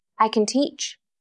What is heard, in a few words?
Voice Acting es F